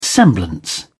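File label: "semblance", English, British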